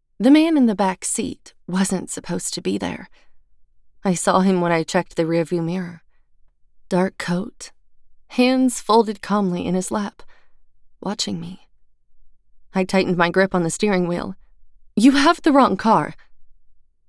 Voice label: Thriller Suspense 1st Person POV